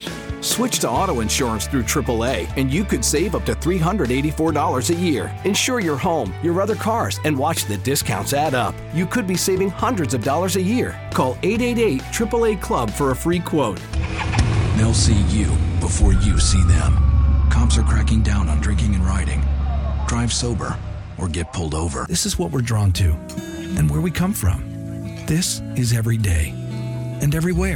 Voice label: Commercial